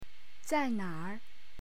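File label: "zai4na3r", Chinese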